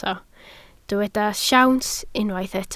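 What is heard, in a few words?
"siawns" Welsh, spoken word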